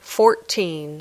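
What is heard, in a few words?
"fourteen", English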